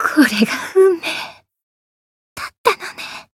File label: retire, jp; radica